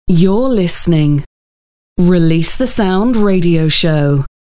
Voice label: youre listening radioshow (female voice)